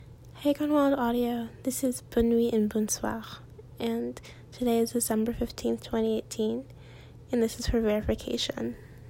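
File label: Female Verification Request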